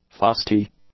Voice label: "fusty", English; adjectives